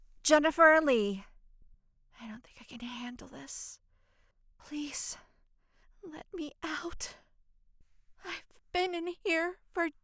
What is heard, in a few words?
booth test sample